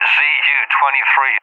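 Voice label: "ZU-23", English, UK; AirDefence; DCS; Allied Flight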